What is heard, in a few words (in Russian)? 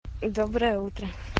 jenskie golosovye sms